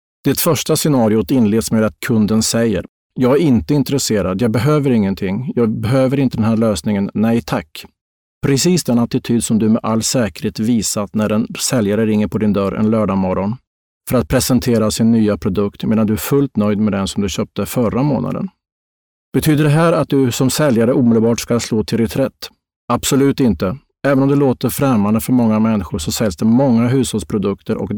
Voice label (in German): Nachvertonung Film Sprecher Schwedisch